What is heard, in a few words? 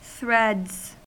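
"threads", US English; pronunciations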